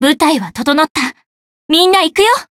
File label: limit break, jp